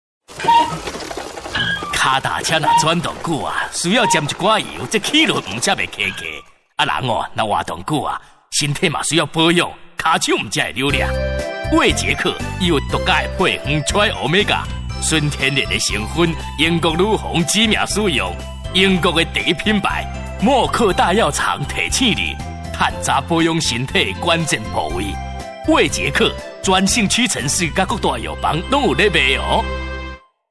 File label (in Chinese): 腳踏車台語篇; 默客製藥